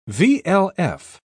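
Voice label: "vlf", British English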